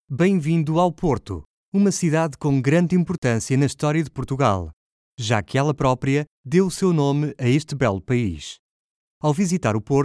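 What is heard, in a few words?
Audioguide Portuguese European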